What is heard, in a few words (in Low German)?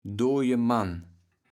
"doje Mann", kaevels platt